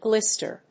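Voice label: "glister", English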